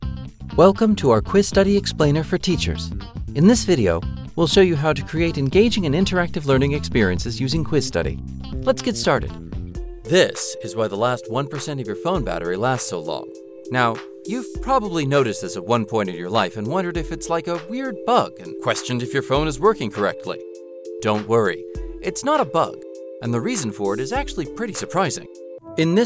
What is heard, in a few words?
Narration Voice